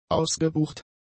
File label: "ausgebucht", German; adjectives